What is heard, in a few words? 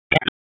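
Police Downtown District Tactical 2, p25; Raleigh; wake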